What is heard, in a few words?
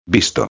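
"visto", Spanish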